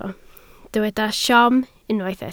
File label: "siom", Welsh